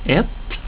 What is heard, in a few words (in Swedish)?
"ett", Swedish; getal